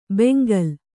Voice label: "ಬೆಂಗಲ್", Kannada; bengal; noun; = ಬೆಂಗದಿರುಗಲ್.

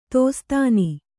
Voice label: "ದಾಸ್ತಾನು", Kannada

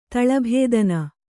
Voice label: "ತಳಭೇದನ", Kannada

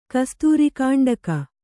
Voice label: "ಕಸ್ತೂರಿಕಾಂಡಕ", Kannada

